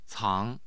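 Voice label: "cang", Chinese, second tone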